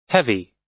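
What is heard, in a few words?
"heavy", US English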